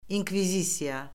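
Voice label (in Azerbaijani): "inkvizisiya", Azerbaijani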